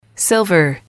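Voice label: "silver", English, female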